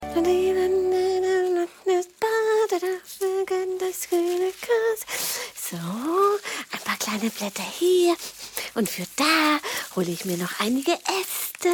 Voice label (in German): Hoerspielszene